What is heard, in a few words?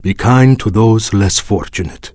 Draenei Male Farewell